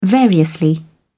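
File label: "variously", British English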